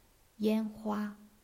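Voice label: "yan1hua1", Chinese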